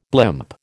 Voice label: "blimp", American